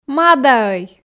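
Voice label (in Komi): мадаӧй, Komi, female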